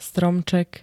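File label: "stromček", Slovak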